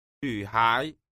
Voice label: "nu hai", tiếng trung